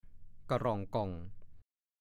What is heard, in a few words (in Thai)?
"กะหร่องก่อง", ภาษาโคราช